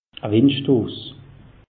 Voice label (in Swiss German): "windstoss", alsace; meteo